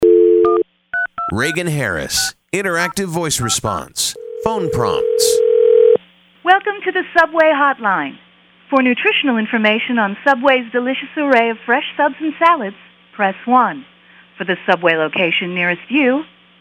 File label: ivr